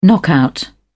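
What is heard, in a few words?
"knockout", English, British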